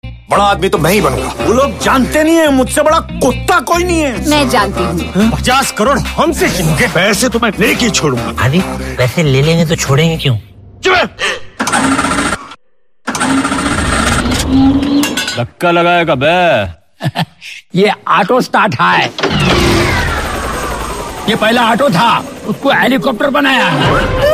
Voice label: Dialogue Ringtone; Total Dhamaal